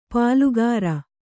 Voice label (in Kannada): "ಪಾಲುಗಾರ", kannada; pālugāra; Noun